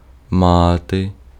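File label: Ukrainian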